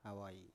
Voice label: "awai", Biak

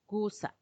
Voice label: "gusa", mud; lodo

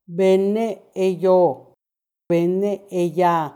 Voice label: "benne eyoo, benneeyaa", people from Teococuilco

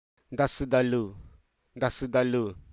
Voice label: hruso, acumen

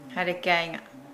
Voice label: hotel, Nukuoro

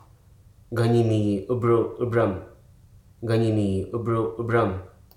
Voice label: hruso, holder

